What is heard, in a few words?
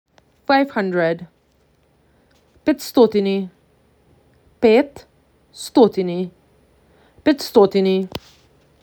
MAC 6, macedonian phrasebook